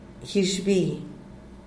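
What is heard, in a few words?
"guizhbi", Zapotec, Díaz Ordaz; basura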